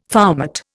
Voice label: "foumart", Indian